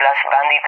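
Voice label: "splash bandit", English, Spain; Messages; DCS; Allied Flight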